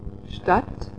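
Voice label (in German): "stadt", German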